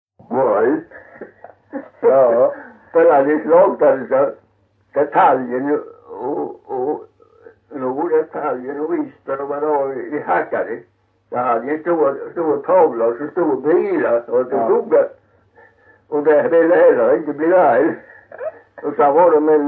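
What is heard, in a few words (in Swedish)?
Kyrkhult Blekinge, dialekter; Folkminnen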